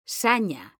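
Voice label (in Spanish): "SAÑA" Spanish, female; mekanta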